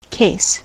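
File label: "case", US English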